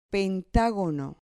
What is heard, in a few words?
"pentagono", Spanish